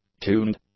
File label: "tuned", English; adjectives